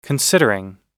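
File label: "considering", US English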